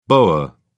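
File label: "boer", British English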